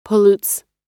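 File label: "pollutes", American English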